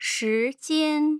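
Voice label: "shijian", Mandarin Chinese, tone pairs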